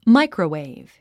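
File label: "microwave", English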